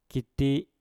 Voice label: "kiti", Mpur; potong